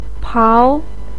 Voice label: "pao2", Chinese pinyin voice